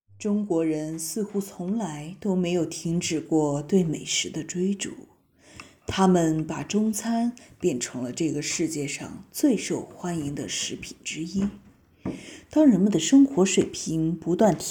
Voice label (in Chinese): 有一点点纪录片的感觉吧, 女, 普通话